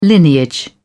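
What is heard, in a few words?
"lineage", British English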